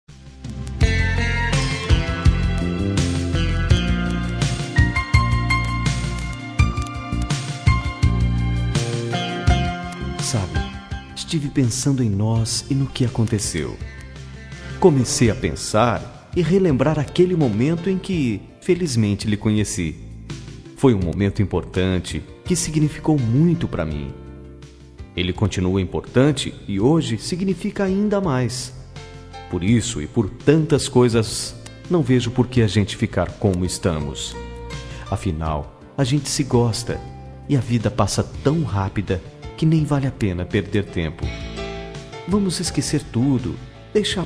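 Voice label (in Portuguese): ROM RECONCILIACAO MASCULINO; Telemensagens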